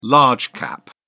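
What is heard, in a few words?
"large cap", British English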